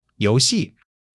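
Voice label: "you2 xi4", Chinese; HSK3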